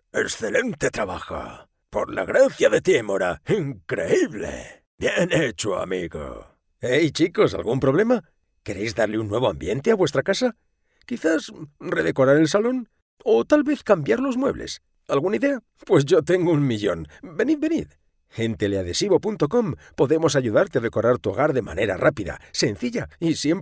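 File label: Spanish Spain male voice over, character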